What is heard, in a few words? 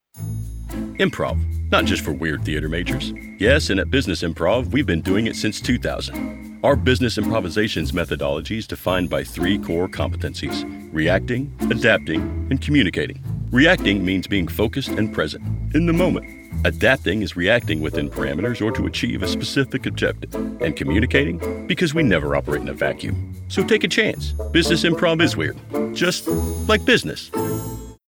E Learning Business Improv